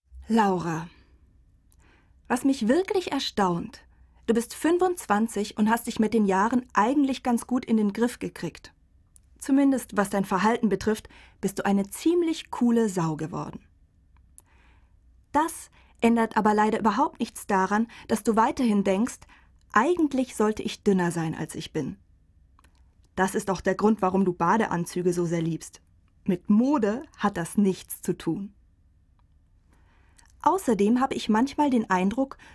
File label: Voice of God